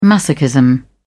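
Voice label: "masochism", British English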